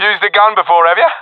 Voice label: sees enemy killed, professional epic; vo; Counter Strike Model Pack; CS:GO